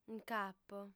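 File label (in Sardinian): "nc'apo", female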